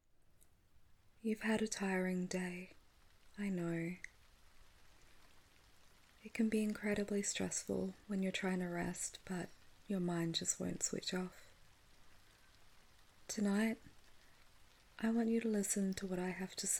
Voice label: Guided Meditation, Sleep Aid, Rain Ambience; F4A; The Stars In Your Sky